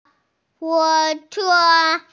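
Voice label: "train", hearing impaired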